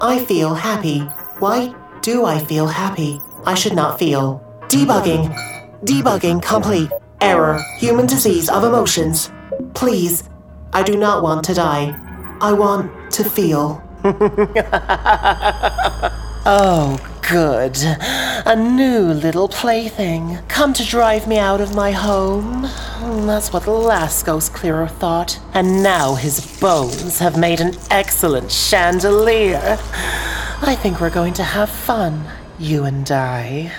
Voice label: transgender female, English, USA accent female voice character